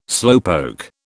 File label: "slowpoke", British